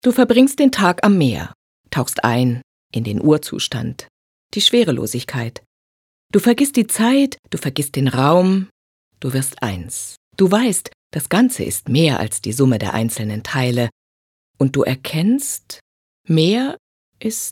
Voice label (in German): Schauspiel Neutral